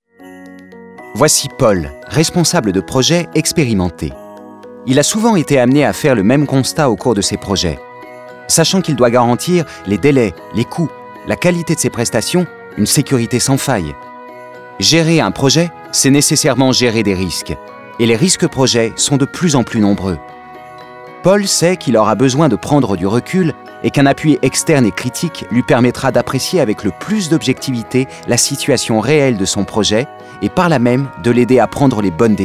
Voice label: Corporate